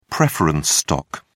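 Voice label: "preference stock", English, British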